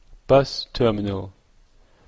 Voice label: "bus terminal", en-ca

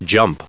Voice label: "jump", en-us recite

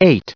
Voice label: "eight", en-us recite

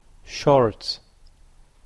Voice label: "shorts", en-ca

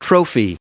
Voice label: trophy, en-us

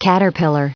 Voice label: "caterpillar", en-us recite

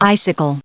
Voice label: icicle, en-us

